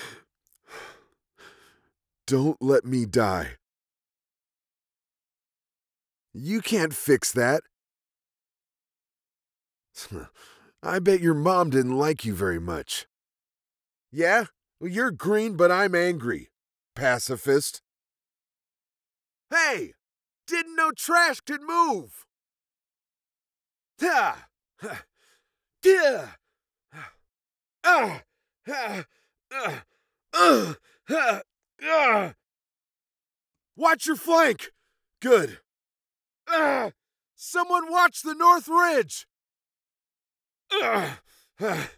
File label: Recruit Male